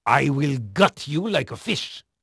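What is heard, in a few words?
Dutch male, voice; Pirates Of The Caribbean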